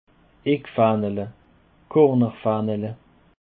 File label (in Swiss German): "eckfaehnele", alsace